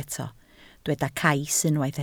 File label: "cais", Welsh